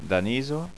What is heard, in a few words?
"danisa", Occitan